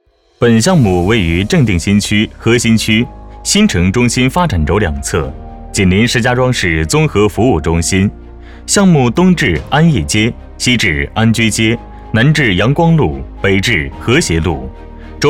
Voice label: 男 企业宣传; 正定新区数创园

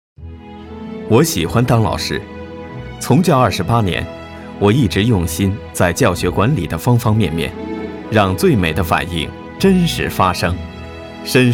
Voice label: 男-人物专题

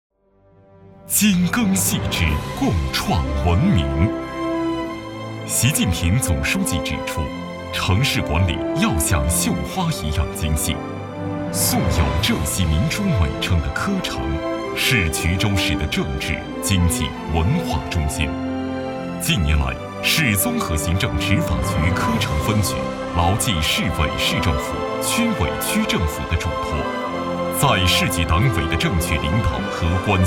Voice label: 男 宣传片; 衢州宣传

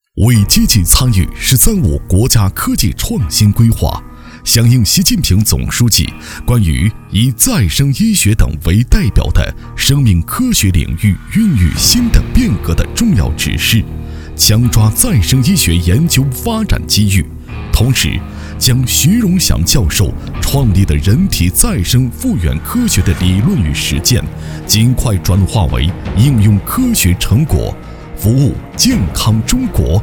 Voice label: 男 专题 学校专题

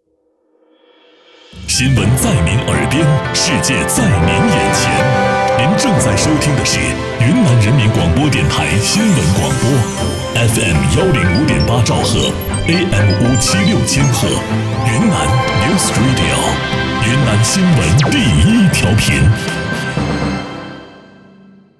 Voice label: 男 片花 电台包装; 云南新闻广播

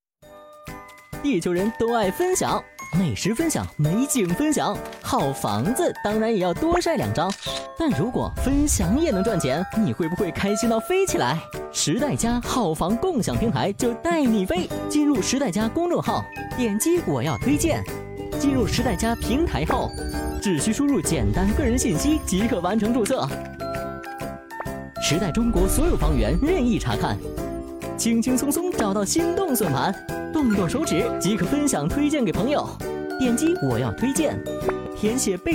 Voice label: 男; 时代中国

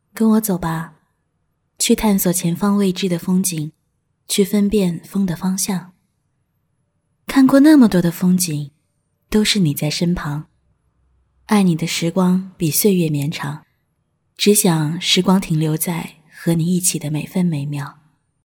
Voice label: 女 中文 旁白; 猫的树

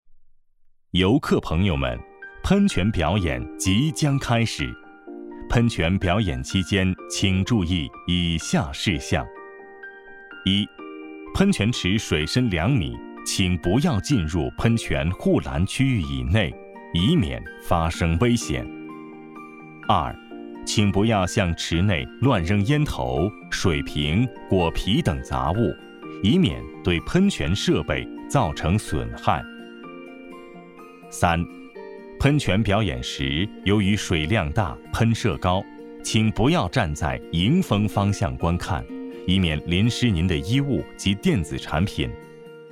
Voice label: 男 中文 温馨提示 景区喷泉

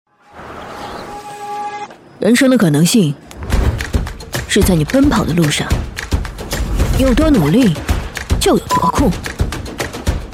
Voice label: 女 广告 素人力量感 运动品牌

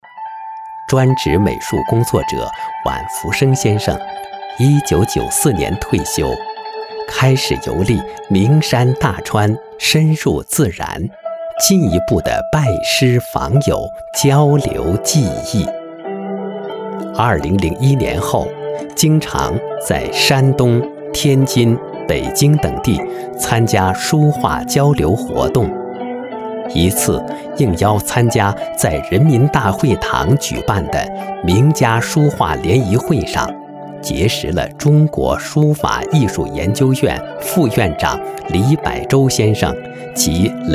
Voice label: 男; 天地圣贤图

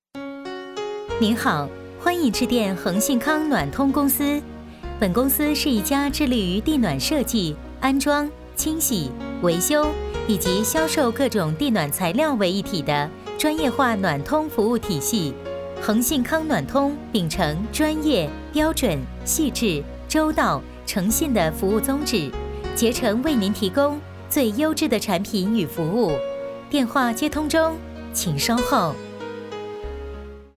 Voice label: 女 彩铃; 恒信康暖通公司

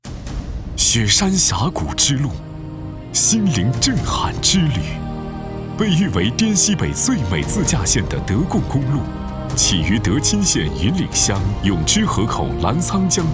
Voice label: 男 大气宣传 解说词; 公路

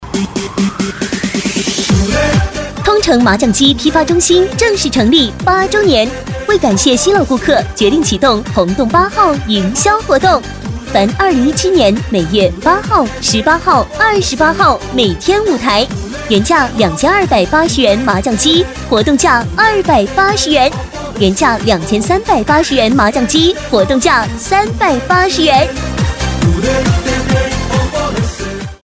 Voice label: 女 广告促销 麻将机; 通城麻将机

